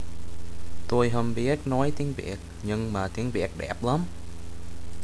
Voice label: Vietnamese